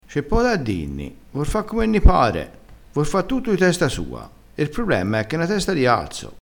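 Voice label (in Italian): "dinni", dialettale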